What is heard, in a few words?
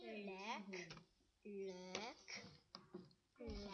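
"lick", spoken word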